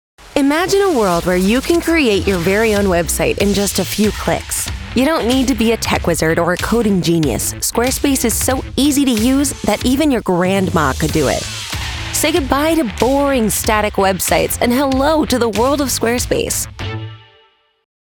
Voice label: playful storytelling conversational, commercial promo; squarespace